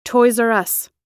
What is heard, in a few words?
"toys r us", audio pronunciation